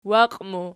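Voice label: "waqimu" nominative, Archi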